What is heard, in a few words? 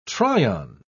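"try-on", British English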